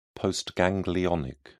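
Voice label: "postganglionic", British English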